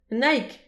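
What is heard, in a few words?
"un aig", Breton; petit precis de prononciation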